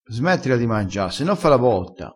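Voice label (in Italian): "fa la botta", vocabolario dialettale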